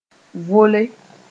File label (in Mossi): "vole", moore